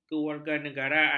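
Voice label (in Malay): "kewarganegaraan", Malay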